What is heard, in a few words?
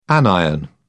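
"anion", British English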